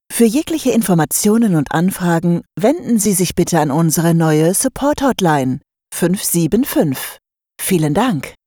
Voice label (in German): support de